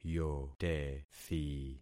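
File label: "yotethi", concatenated syllables; Affixing experiment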